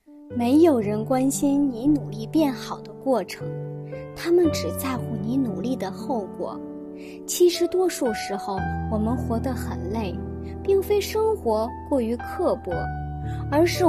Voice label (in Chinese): 诗词朗诵; 变好的过程